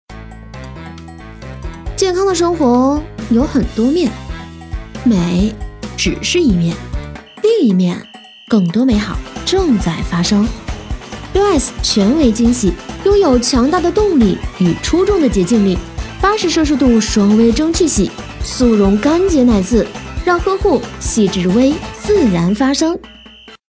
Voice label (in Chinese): 女, 活力广告, 洗碗机电器, 轻快活力; 甜美亲和; 轻松活泼